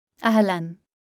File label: Hebrew Hello informal; Greetings